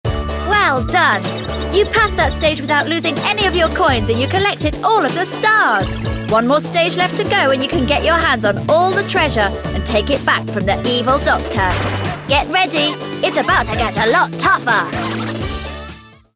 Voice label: Kids Voice

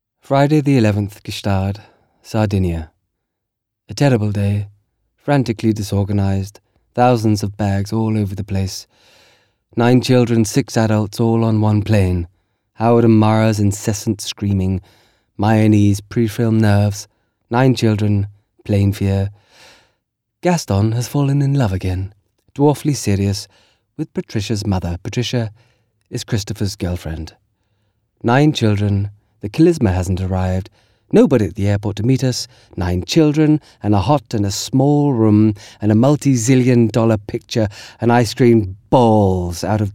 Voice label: AUDIOBOOK; Richard Burton

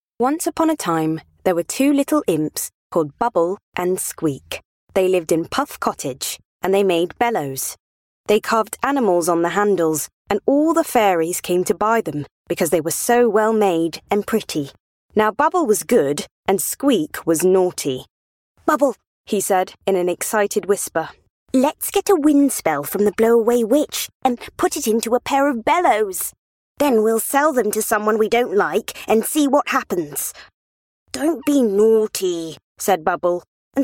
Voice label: Childrens Narration; Bubble and Squeak

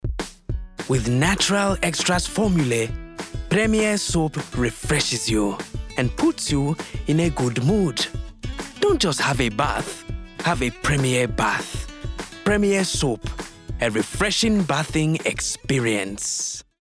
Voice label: english with sierra leonean accent commercial; premier soap

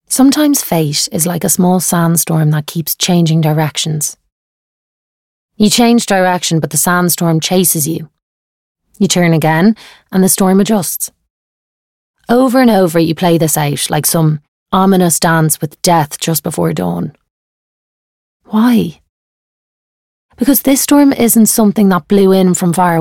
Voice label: Narration

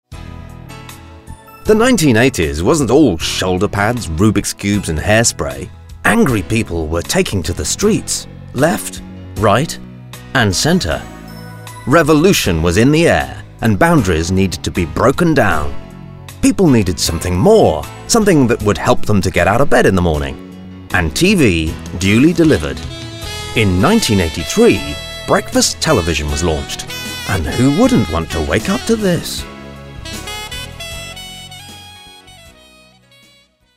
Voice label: TV Doc

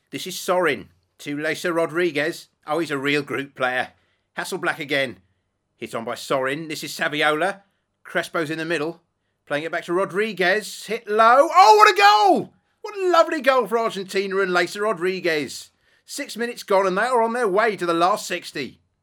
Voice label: Football Commentator

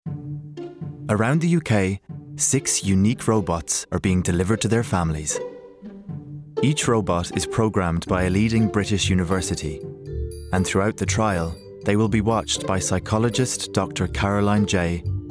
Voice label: Documentary; Robots

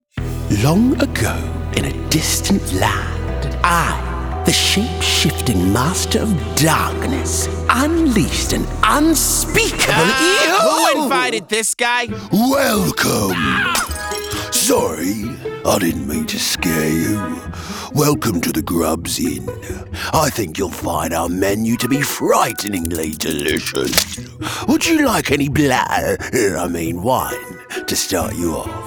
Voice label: Animation

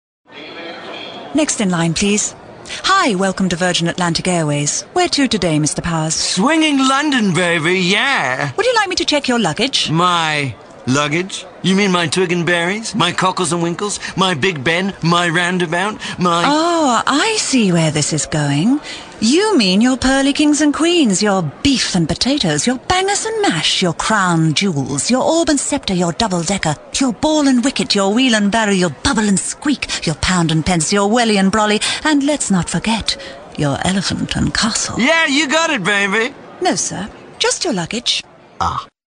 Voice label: Commercial

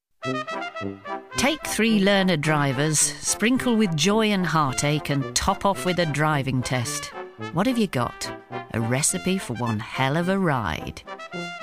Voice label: Documentary

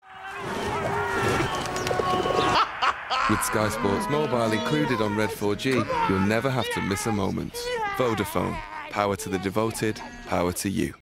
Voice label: soft natural; Vodafone

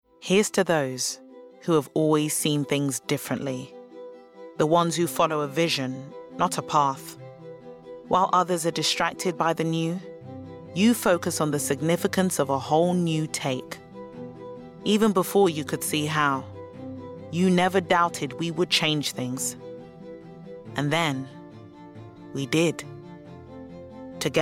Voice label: Commercial

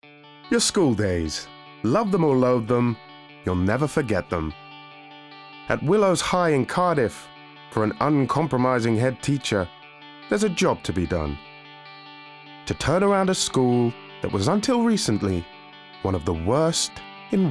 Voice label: nar doc

